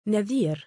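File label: "nadhiir", Arabic, male; given name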